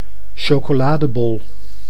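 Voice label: "chocoladebol", Dutch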